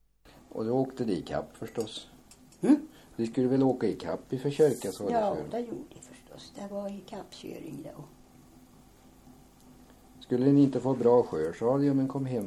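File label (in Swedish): Gunnarskog Värmland, Svenska sagor, dialekter; Folkminnen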